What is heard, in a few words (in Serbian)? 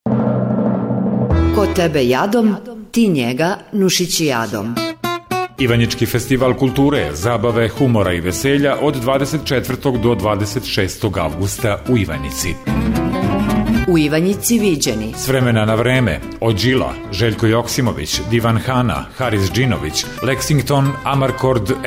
reklama; NUSICIJADA; Ivanjica